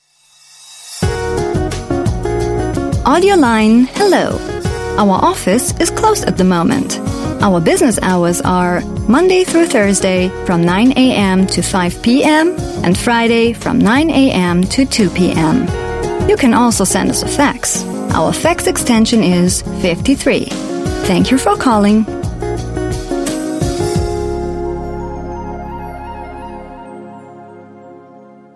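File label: On Hold German English Female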